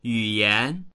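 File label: "yu3yan2", Mandarin Chinese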